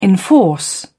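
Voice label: "enforce", British English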